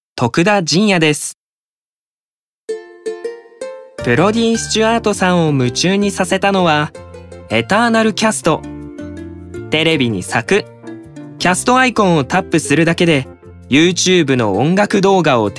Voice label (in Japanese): ナチュラル